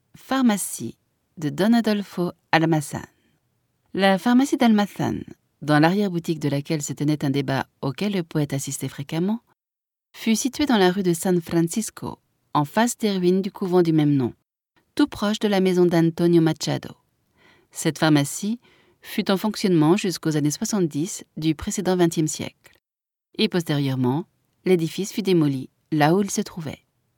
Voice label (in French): audioguia, frances; audioguia baeza